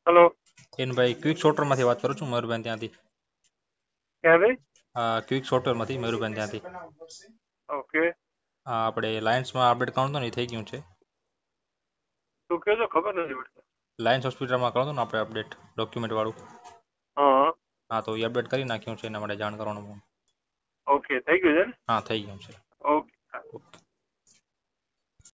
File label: Call recording